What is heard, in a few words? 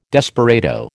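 "desperado", American English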